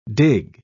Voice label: "dig", pronounce